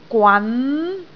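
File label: "gwan2", Cantonese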